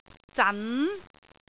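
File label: "zan2", Cantonese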